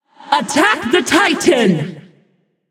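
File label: Attack male Buffed; smite; nike; voicepack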